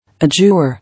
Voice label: "Adjure", English